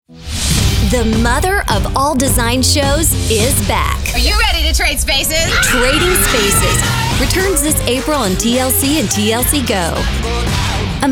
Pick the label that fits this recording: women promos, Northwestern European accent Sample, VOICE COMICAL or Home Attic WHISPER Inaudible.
women promos